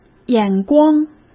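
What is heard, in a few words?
"眼光", Mandarin Chinese; chinese flashcards; hsk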